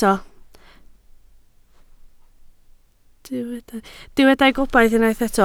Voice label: "gobaith", Welsh